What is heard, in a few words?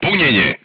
voice message reloading, crew tanks serbian